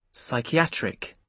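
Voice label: "psychiatric", British English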